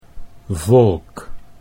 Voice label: "volk", Dutch